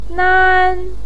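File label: "nan", Mandarin pinyin, tone 1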